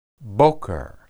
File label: "boqer", Hebrew